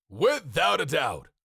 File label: Cartoon lion voice; without a doubt